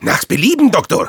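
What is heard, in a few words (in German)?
activate charge, German; "Nach belieben Doktor."; Spy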